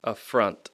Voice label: "affront", English